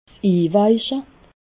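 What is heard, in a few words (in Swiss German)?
"inweiche", Haut-Rhin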